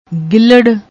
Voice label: "gihlrr", Punjabi; ਗਿੱਲ੍ਹੜ